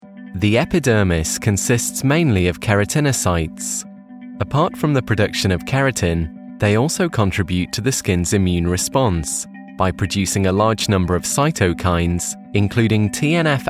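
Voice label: Medical Narration, Male Voiceover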